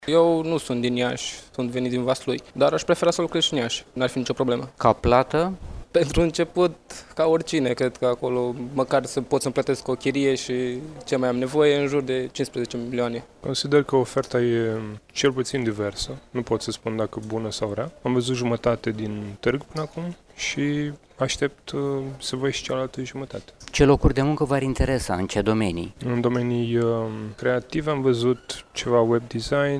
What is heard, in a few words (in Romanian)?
vox pop; targ; iasi targ de locuri de munca